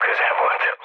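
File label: Hungarian bomb failure mood low, speech; War Thunder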